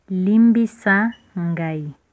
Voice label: sorry, Lingala